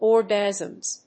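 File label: "orgasms", English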